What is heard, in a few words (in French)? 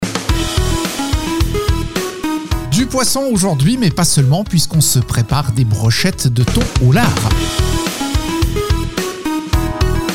LA CHRONIQUE; MIAM Brochettes de thon au lard